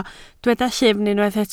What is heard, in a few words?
"llyfn", Welsh